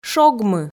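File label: "шогмы", Komi, female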